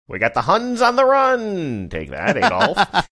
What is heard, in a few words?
saying we got huns on the run old timey newsreel voice